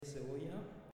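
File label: "Cebolla", Spanish, male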